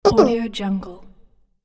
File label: small critter game character voice